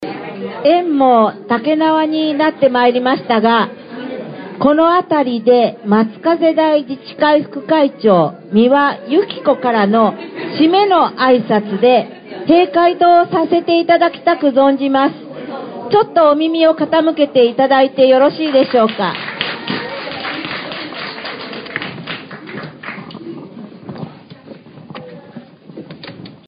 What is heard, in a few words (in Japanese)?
司会; rakuseishiki